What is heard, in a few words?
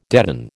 "deaden", American